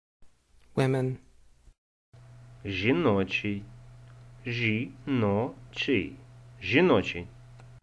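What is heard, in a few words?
Ukrainian Women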